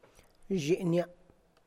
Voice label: "za", my child, Tlacochahuaya Zapotec

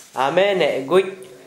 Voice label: lets pull it, Gta, Dialect: Hill; a-mɛ nɛʔ-gujg

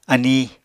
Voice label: "aníi", Apatani

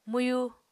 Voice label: "mwyu", Apatani

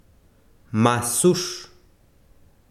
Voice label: besiro oido, chiquitano

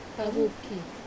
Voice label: "pavuki", corn, Wamesa

